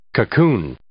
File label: "cocoon", English